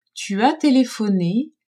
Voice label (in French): "tu as telephone", French; telephoner